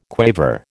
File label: "quaver", American